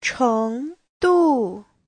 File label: "cheng2du4", Chinese; 程度; trình độ